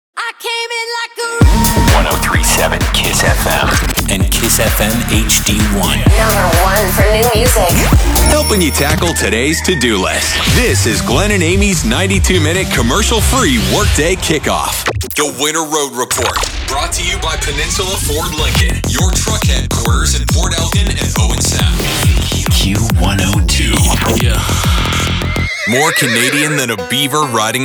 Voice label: Imaging